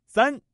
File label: single 3, male